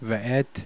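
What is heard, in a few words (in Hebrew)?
"vet", Hebrew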